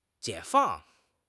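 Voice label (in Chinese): "解放", Chinese; HSK; HSK6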